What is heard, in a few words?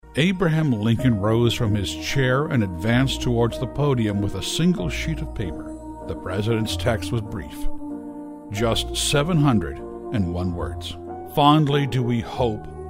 Documentary